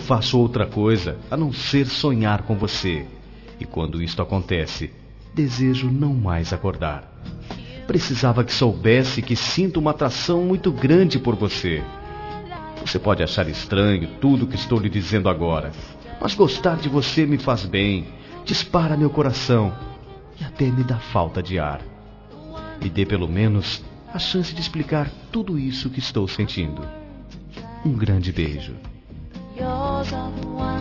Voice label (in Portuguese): Romântica Com Conquista